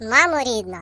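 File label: "Oh dear", British English; Default uk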